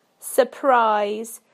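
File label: "surprise", British English; PictureDictionary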